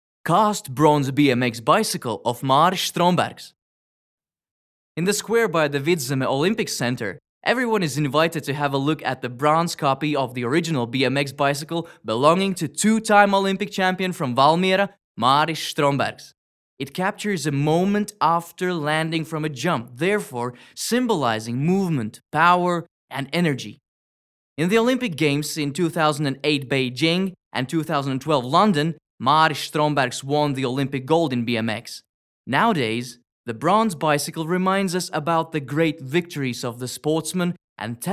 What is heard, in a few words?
ENGLISH; Strombergs